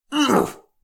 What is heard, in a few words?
guard male; SyrinScape; Waterdeep